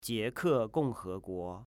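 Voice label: "Jieke Gongheguo", Chinese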